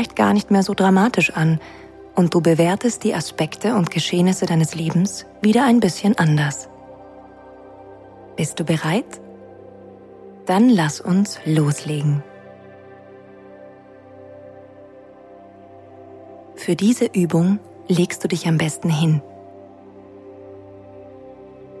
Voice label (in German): eine meditative Gedankenreise; Perspektive wechseln; Ruhe und Kraft; Meditation für alle Lebenslagen; Julep Studios; Entspannung